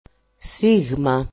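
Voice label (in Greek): "sigma", greek; alphabet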